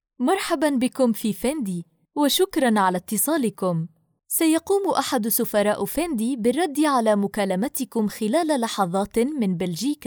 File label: Arabic IVR; FENDI